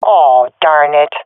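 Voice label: round losing, swat female; vo; Counter Strike Model Pack; CS:GO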